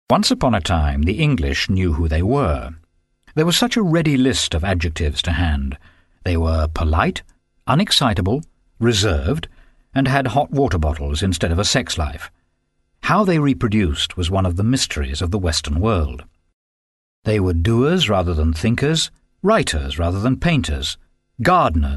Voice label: Narration